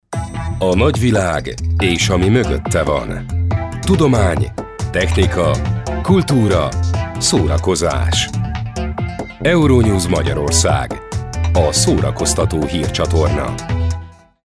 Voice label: Macarca Erkek, seslendirme; erkek sesler

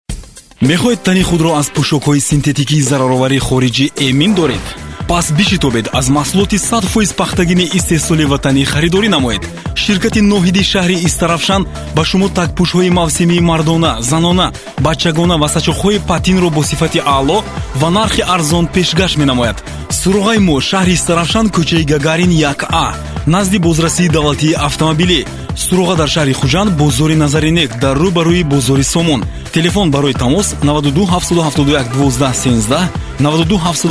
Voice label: Tacikce Erkek, tacikce seslendirme; erkek sesler

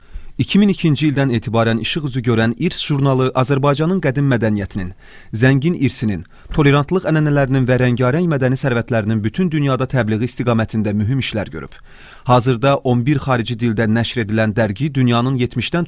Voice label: Azerice Erkek, seslendirme

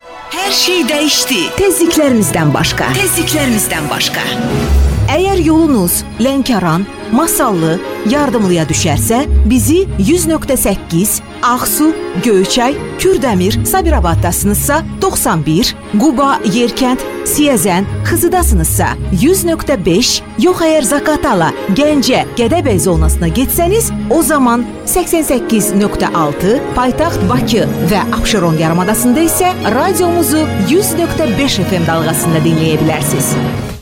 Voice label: Azerice Kadin; seslendirme

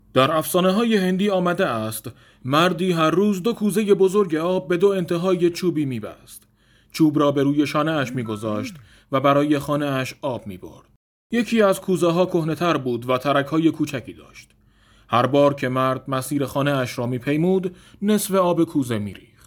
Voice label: Farsca Erkek Iran; seslendirme

